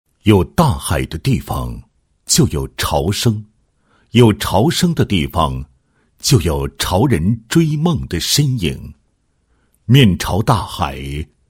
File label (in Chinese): 男 企业 影视宣传片, 配音; 集团影视宣传片; 盛迪嘉集团影视宣传片